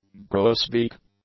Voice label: "grosbeak", English; nouns

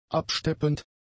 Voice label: "absteppend", german; adjectives

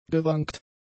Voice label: "gewankt", German; adjectives

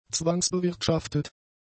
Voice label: "zwangsbewirtschaftet", German; adjectives

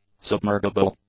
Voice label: "submergible", English; adjectives

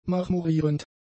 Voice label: "marmorierend", German; adjectives

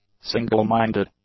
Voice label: "single-minded", English; adjectives

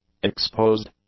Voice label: "exposed", english; adjectives

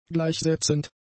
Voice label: "gleichsetzend", German; adjectives

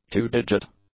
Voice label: "two-digit", English; adjectives

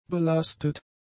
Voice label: "belastet", German; adjectives

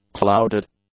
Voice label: "clouded", English; adjectives

